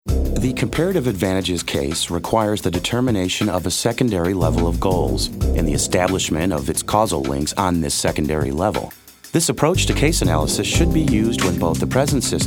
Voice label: corporate